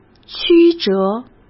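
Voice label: "曲折", Mandarin Chinese; hsk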